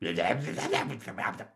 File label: crazy mumble; mvm forever